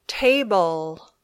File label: "table", English